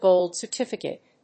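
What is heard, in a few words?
"Gold certificate", English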